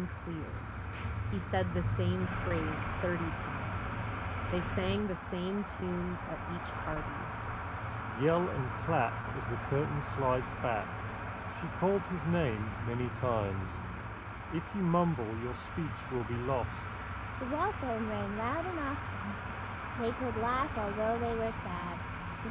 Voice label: Beats Studio Buds Plus Street microphone sample

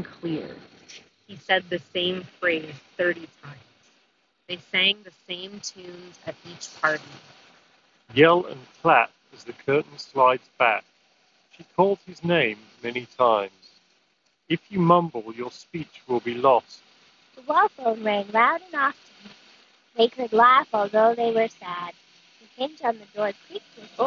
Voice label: Google Pixel Buds Pro Street microphone sample

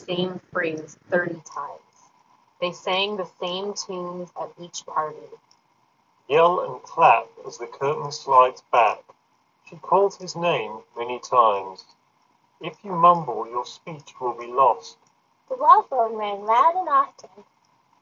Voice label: Jabra Elite 8 Active Wind microphone sample

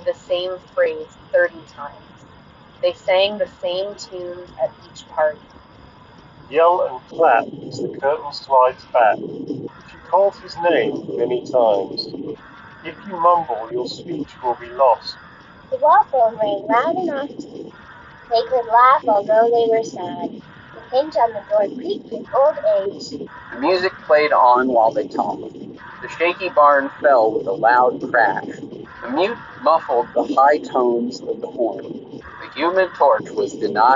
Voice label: Soundcore Liberty 4 NC Wind microphone sample; Anker

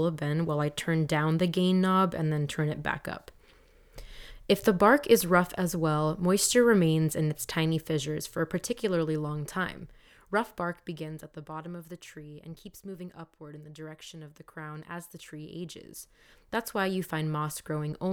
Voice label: Hyper X Quadcast S cardioid

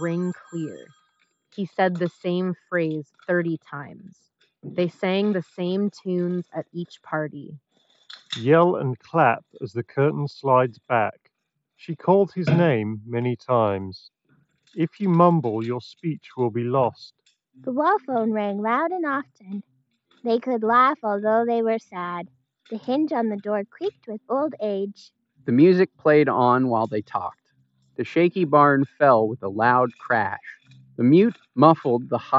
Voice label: USB Office microphone sample; Razer Hammerhead Hyperspeed

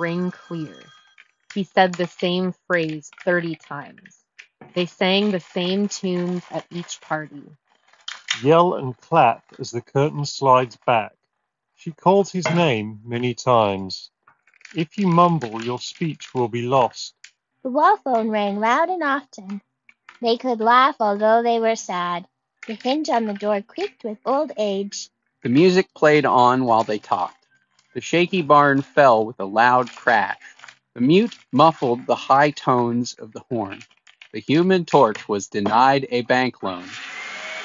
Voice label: Jabra Elite 10 Office microphone sample

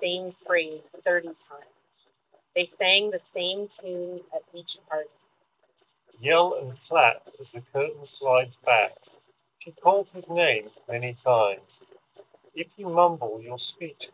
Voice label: Wind microphone sample; Nothing Ear 2

